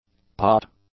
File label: "pot", English; nouns